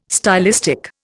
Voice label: "stylistic", Indian